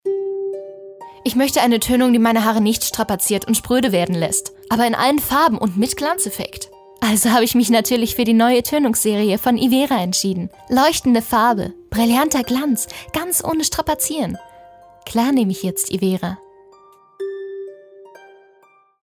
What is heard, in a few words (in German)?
Werbung; Ivera